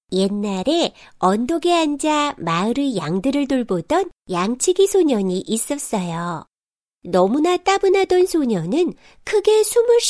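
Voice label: Korean F Narrative, female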